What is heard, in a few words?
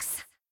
buncha books, girls; cheerReaders; LetsGoRead